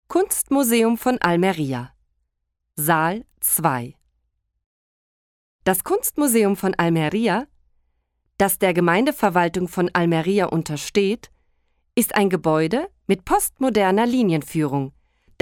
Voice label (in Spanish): AUDIOGUIA ALMERIA ALEMAN museo arte almeria